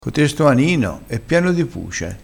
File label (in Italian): "puce", vocabolario dialettale